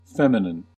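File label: "feminine", US English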